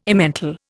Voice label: "Emmental", Indian